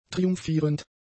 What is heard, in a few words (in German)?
"triumphierend", German; adjectives